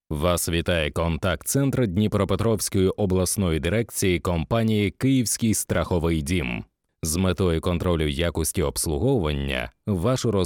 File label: IVR UKRAINIAN